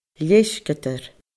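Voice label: "leisgeadair", Scottish Gaelic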